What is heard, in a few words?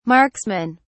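"marksmen", British English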